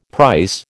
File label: "price", American